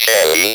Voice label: ROB VOX; E-Lab; X Static Goldmine 1